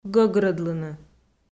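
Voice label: "гӧгрӧдлыны", Komi, female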